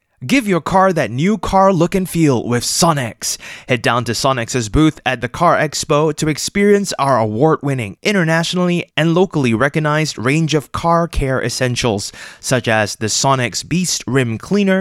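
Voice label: Asian English Radio Spot; Sonnax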